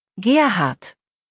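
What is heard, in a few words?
"Gerhard", German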